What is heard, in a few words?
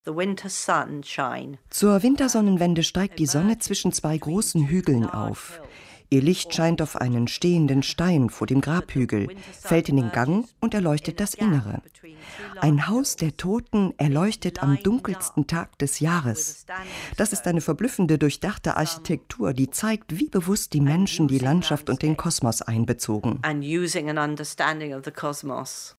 Voice Over